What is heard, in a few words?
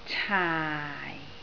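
"caai4", Cantonese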